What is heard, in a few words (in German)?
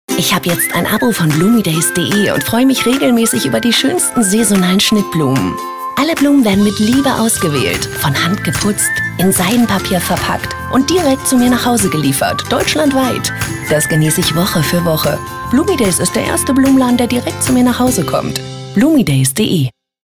TV-Spot, werbung; Bloomydays